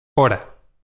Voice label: "hora", pron